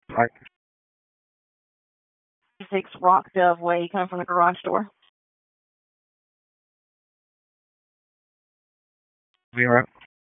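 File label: Sheriff's Office Dispatch 1, p25; wake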